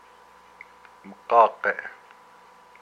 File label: its gullet, hupa